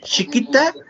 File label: "xikita", Náhuatl; mira; look